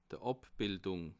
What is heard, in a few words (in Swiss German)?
"abbildung", alsace